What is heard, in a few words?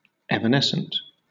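"evanescent", British English; pronunciations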